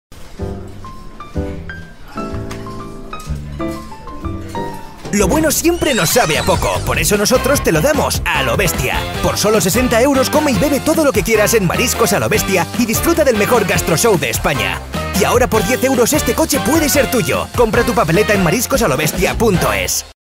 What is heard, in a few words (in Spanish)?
promo intensa, banco de voces